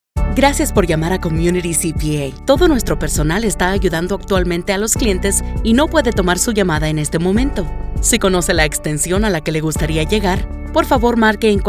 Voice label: Telephone IVR Spanish